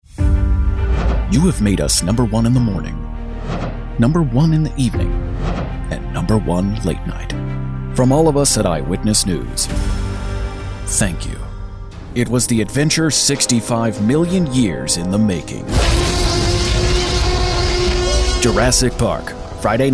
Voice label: promos